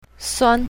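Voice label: "suan1", pinyin, south, female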